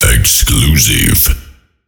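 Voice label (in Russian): DJ ЛАЙНЕР, Диктор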